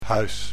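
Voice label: "huis", Dutch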